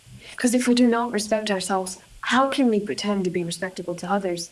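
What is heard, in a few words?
voice acting, es, female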